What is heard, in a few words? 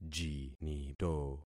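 "jinito", concatenated syllables; Affixing experiment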